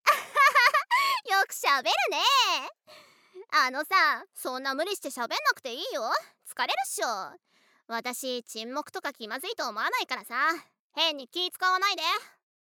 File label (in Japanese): ギャルっぽい女の子